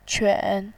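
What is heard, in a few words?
"quan", Mandarin Chinese, third tone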